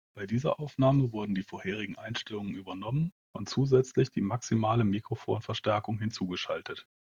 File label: Corsair HS65 Wireless Mic Boost